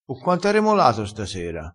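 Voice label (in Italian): "remola", dialettale, crespinese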